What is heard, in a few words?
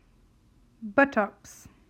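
"Buttocks", English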